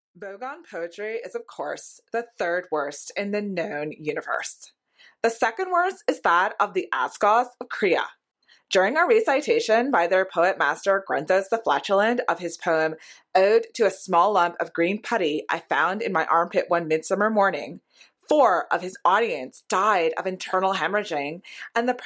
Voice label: female english canadian and jamaican californian valley girl accent